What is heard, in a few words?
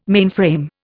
"mainframe", Indian